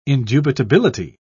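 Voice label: "indubitability", British English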